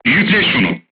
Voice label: voice message load, crew tanks turkish